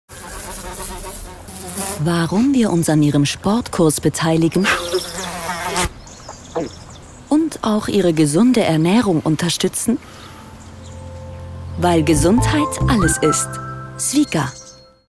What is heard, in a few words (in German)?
Spot Yoga Kampagne; SWICA